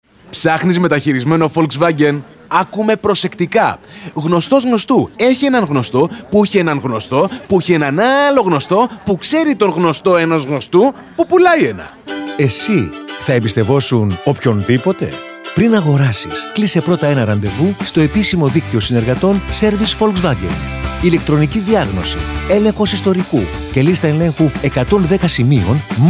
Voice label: character; VW After Sales

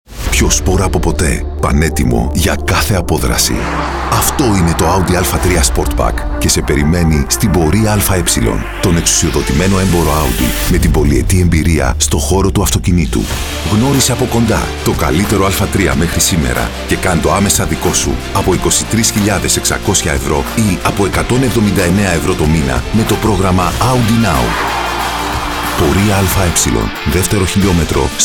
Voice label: Radio Spot; Audi

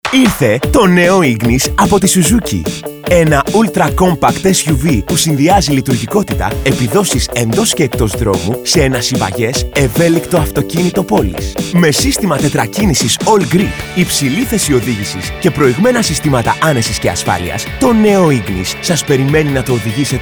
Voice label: Radio Spot; Suzuki

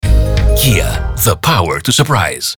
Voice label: English; KIA

